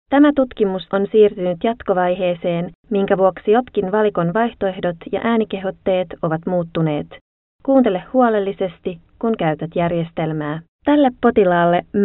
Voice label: Finnish